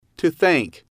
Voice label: to thank, English